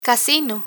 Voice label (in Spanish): "casino", Spanish